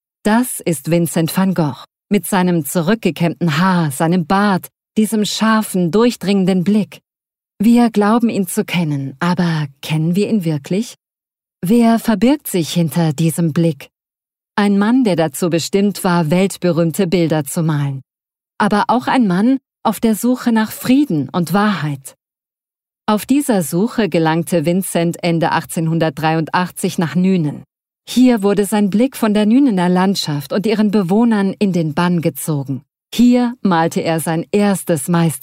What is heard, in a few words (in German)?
AUDIOGUIDE zugewandt informativ; VincentVanGoghGalerie